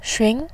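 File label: "xun", Mandarin Chinese, tone 2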